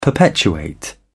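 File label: "perpetuate", British English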